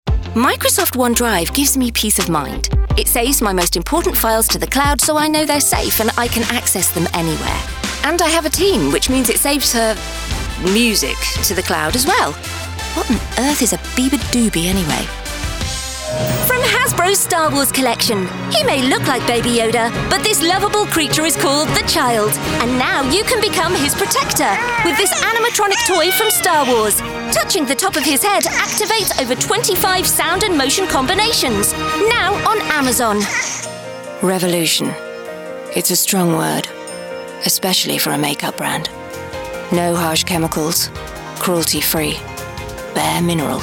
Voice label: commercial, Voiceover

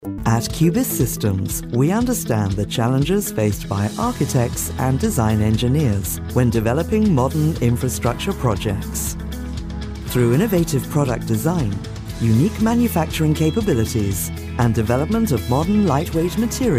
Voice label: corporate reel, voiceover

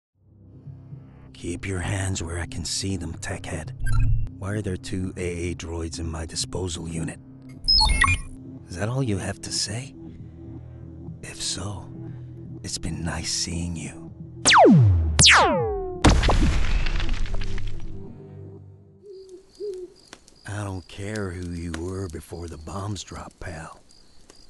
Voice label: USA voice reel

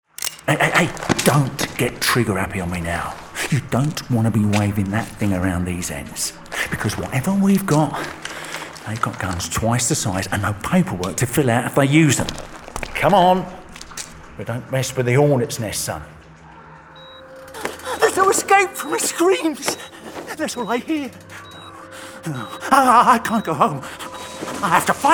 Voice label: gaming showreel, voiceover

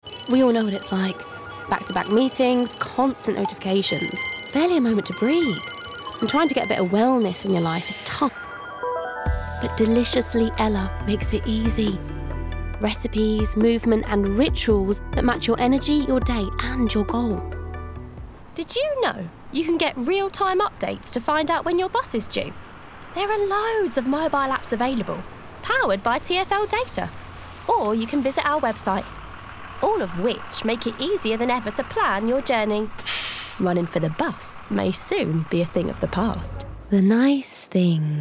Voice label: commercial reel, voiceover

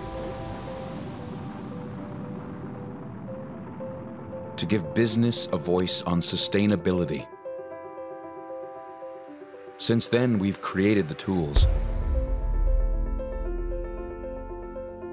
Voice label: corporate reel, voiceover

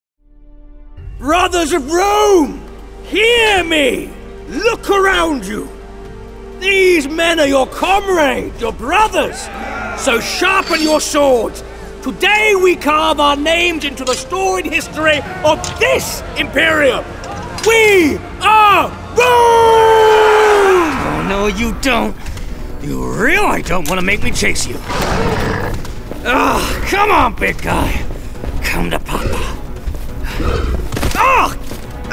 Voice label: gaming animation voice reel

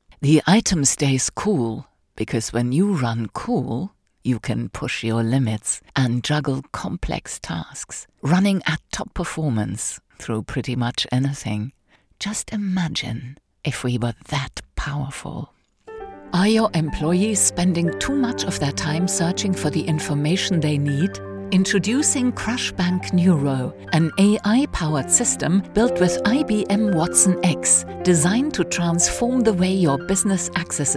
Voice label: english comm mix, voiceover

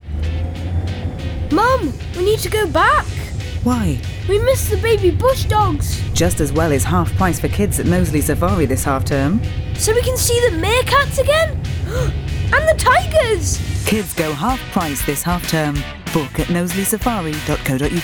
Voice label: commercial reel, voiceover

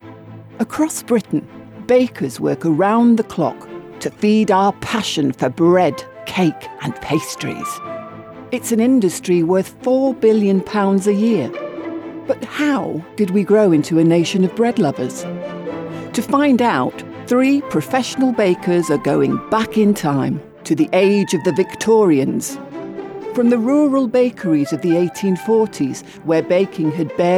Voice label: narration reel, voiceover

